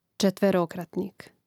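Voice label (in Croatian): "četverokratnik", Hrvatski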